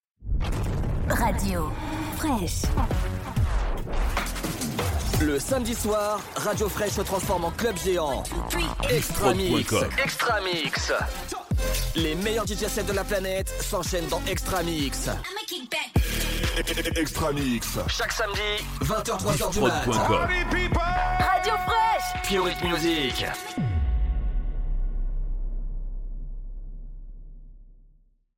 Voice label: Promo Club; ExtraMix

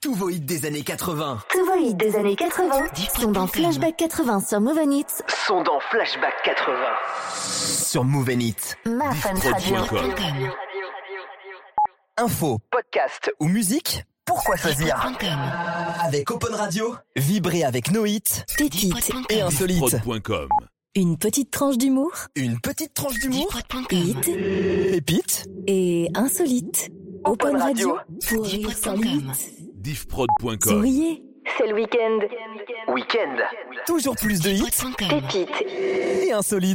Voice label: Drops A cappellas, habillage

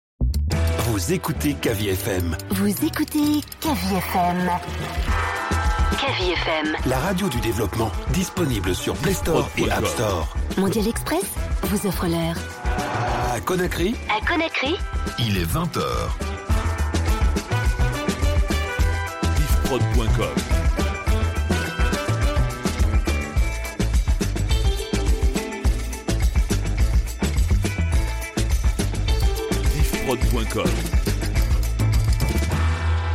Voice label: Top H Cage, habillage; Cavi FM

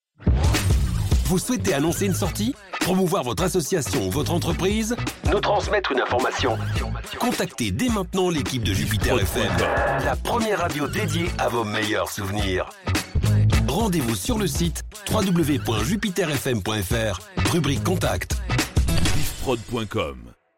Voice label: Promo Regie; Jupiter FM

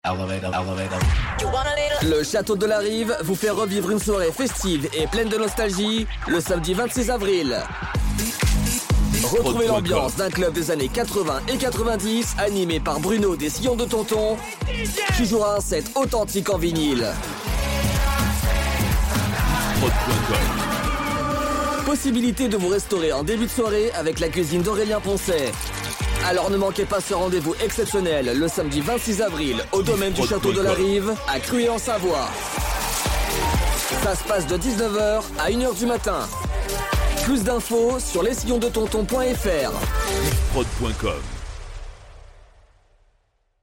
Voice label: Spot, publicite; Les Sillons de tonton; Chateau de la rive 26 Avril

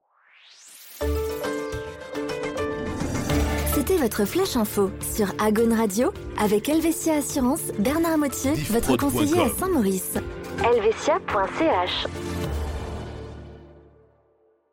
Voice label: Radio Jingle Outro Votre flash info; Agaune

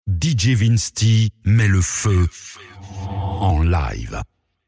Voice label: Drop Le feu Live

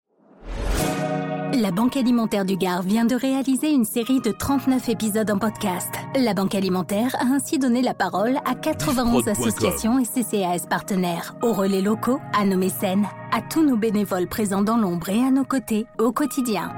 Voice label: Auto promo Podcast; Banque Alimentaire Gard

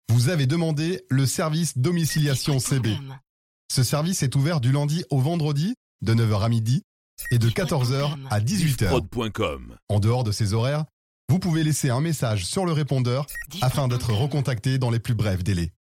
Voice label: Service Technique Repondeur Voix, telephonie svi

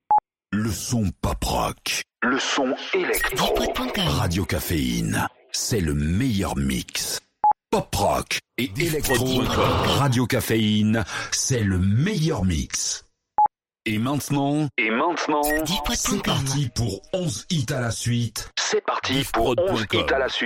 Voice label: Drops A cappellas, habillage

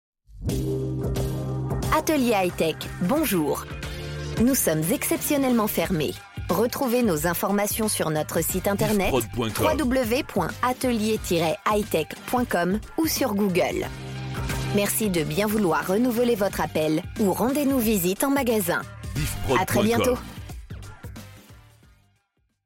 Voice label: Audiotel Fermeture, telephonie svi; Atelier Itech

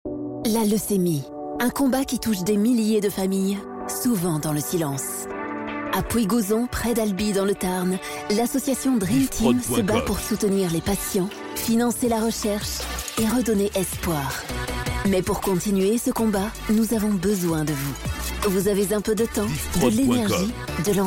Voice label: Spot contre la leucemie; Dreamtim

